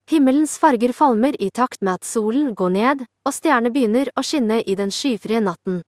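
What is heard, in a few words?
Norwegian Bokmål, Norway; iOS Sounds; ingrid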